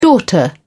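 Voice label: "daughter", English, British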